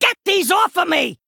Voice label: Male Goblin